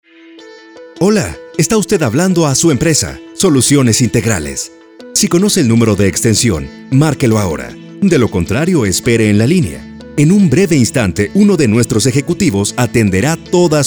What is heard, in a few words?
spanish latin american ivr